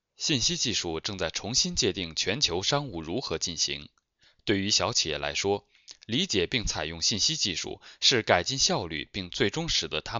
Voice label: Chinese Mandarin